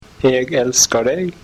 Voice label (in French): neo norvegien